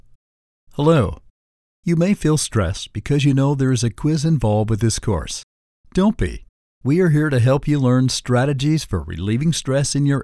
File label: E Learning